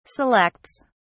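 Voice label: "selects", British English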